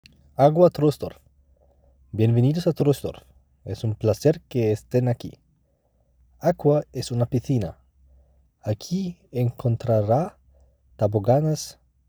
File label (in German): Spanisch; AGGUA Troisdorf